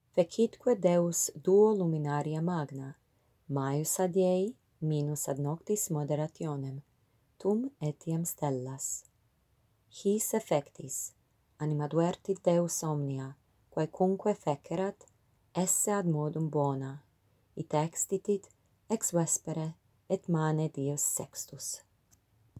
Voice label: latin genesis